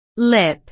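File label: "lip", English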